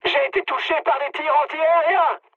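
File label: damaged aa, mood high, French; War Thunder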